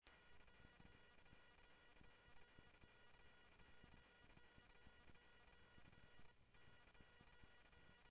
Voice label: South Scottsdale Sheriff, scanner audio